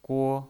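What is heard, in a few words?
"guo", Mandarin Chinese, first tone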